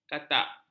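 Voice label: "katak", Malay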